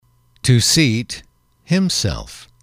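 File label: "to seat himself", English, male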